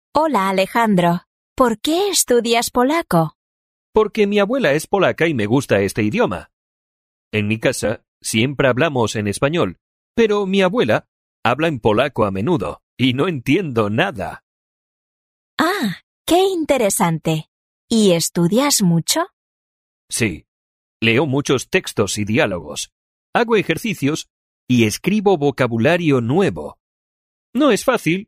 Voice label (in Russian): urok dialog